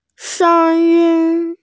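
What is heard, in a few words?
"sheng1yin1", Mandarin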